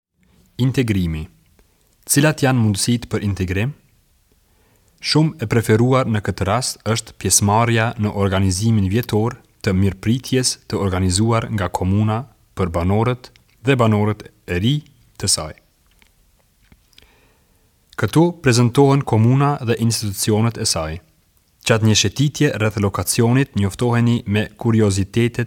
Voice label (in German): Erstinformation Audio Albanisch